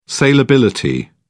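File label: "saleability", British English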